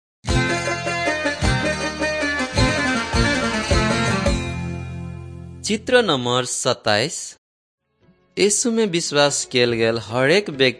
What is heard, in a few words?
maithili thetiya